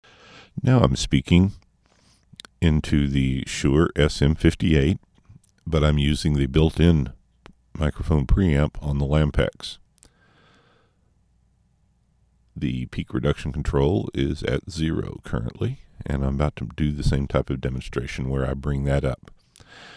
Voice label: Ampex voice